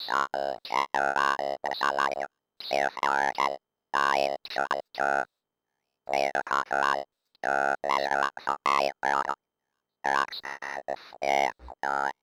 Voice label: Robot Voices Creature Robot Speaking Talking Gibberish Babbling Medium Register; Cute; ShapingWaves